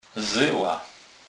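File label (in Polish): "zela", Kurpie dialect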